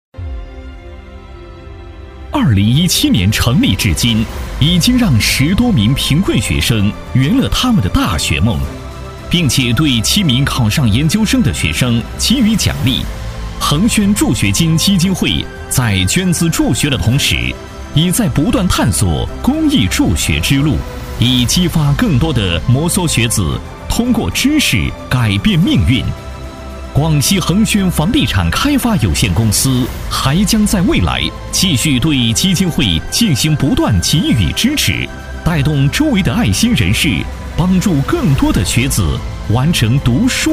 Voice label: 男国 专题 企业 房地产开发公司 大气

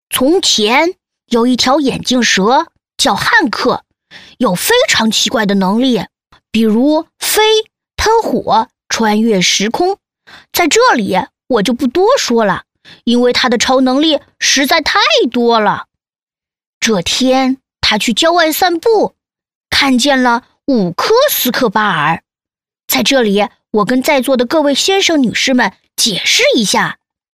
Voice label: 多媒体 儿童故事 男童讲故事类

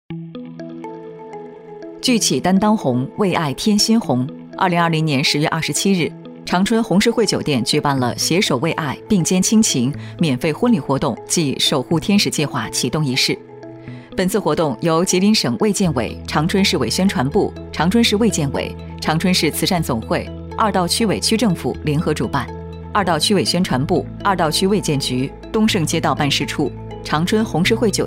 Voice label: 女 专题 新闻 新闻

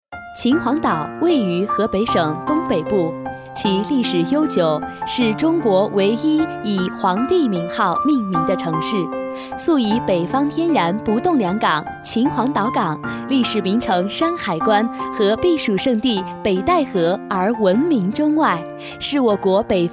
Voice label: 专题 政府 秦皇岛 温情

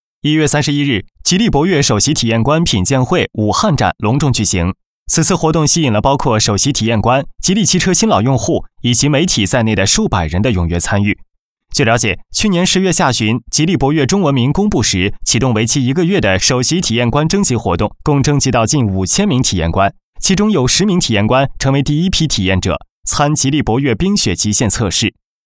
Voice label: 专题 新闻, 男; 吉利新闻

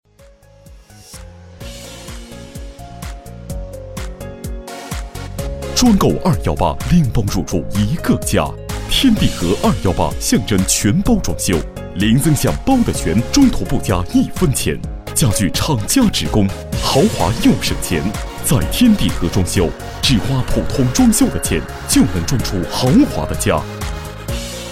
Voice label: 广告 家居家电 装修公司 轻快, 男国

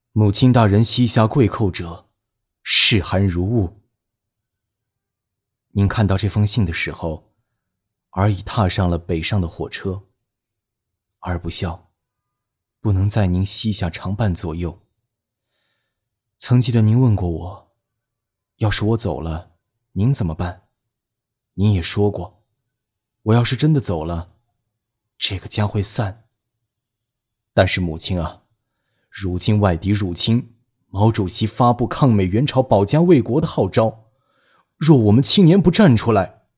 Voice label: 动画 角色 年轻战士角色, 男国

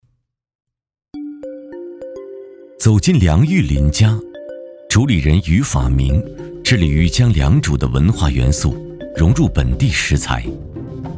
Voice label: 纪录片 舌尖 舌尖纪录, 男

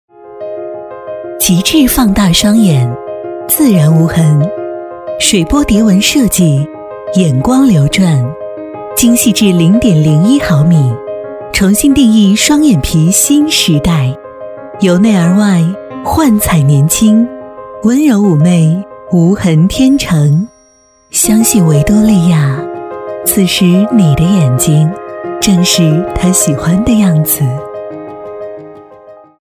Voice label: 广告 化妆品 大气; 维多利亚

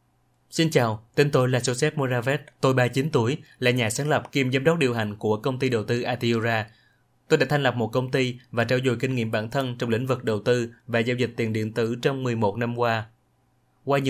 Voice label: 越南语男, 外语, 小语种

